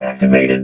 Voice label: "activated", robot vox

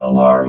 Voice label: "alarm", robot

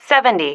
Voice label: "seventy", female

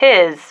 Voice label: "his", female

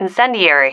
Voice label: "incendiary", female

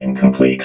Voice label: "incomplete", robot

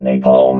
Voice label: "napalm", robot

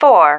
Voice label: "four", female

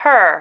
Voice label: "her", female

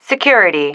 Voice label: "security", female

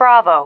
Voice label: "bravo", female

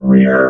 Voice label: "rear", robot

